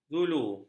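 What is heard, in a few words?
"zulu", Malay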